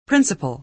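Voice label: "principal", American English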